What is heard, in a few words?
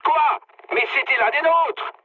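player killed ally, mood medium, French; War Thunder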